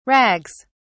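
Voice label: "rags", British English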